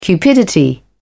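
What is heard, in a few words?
"cupidity", British English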